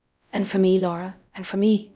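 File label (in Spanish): Voice Acting, es, female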